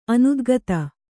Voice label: "ಅನುದ್ಗತ", Kannada; anudgata; not gone up; not ascended; not proceeded forth from; not gone out.